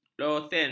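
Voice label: "losen", Malay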